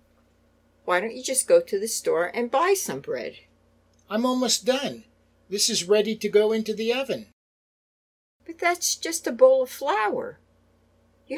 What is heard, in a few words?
Natural Dialog